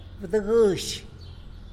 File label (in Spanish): "bduguëzh" cuajilote, Tlacolula